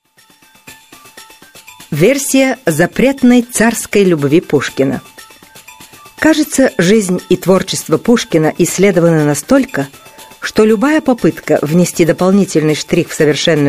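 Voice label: russian female narration; voice demos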